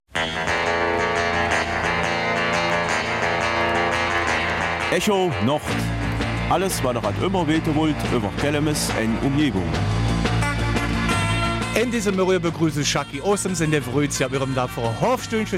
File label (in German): Kelmiser Mundart; Arbeiten im Pflegedienst - Beruf oder Berufung